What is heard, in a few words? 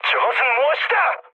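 Korean trick mood high; speech; War Thunder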